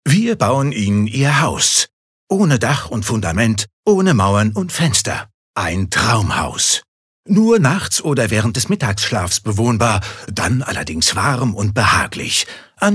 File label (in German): Werbung Dynamisch